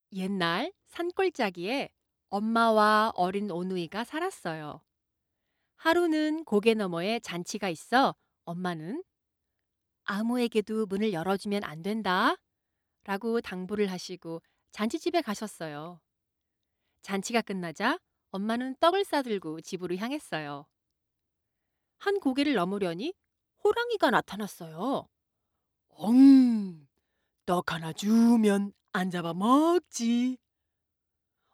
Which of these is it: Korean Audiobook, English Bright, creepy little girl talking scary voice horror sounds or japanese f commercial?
Korean Audiobook